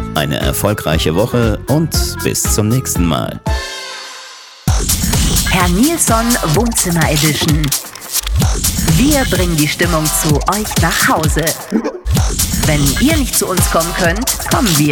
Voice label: Podcast Intro Outro